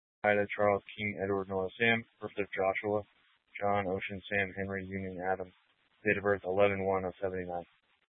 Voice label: Police Department Dispatch, scanner

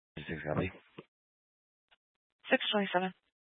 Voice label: Fire Department Dispatch, scanner

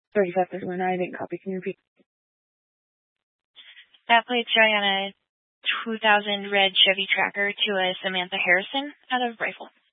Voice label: Police Department Dispatch; Grand Junction

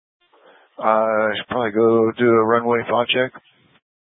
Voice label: Tactical Incidents, scanner

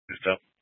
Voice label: Mesa County Sheriff Dispatch

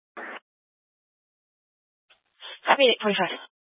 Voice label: Fire Dispatch, scanner

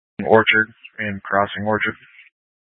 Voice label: Tactical Incidents, scanner; Grand Junction Tactical 3

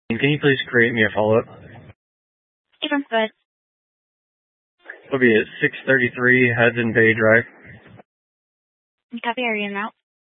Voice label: Sheriff Dispatch; Mesa County

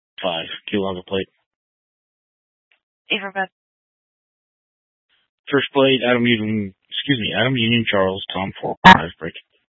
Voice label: Sheriff Dispatch, scanner; Mesa County

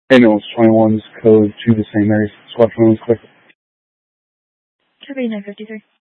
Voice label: Fire Department Dispatch; Grand Junction

